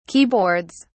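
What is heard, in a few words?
"keyboards", British English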